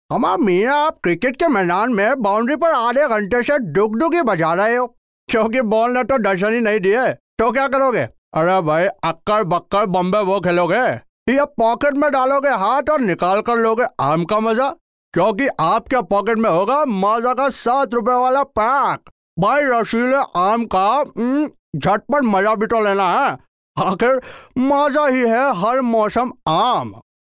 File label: hindi, male voice